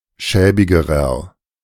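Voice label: "schäbigerer", German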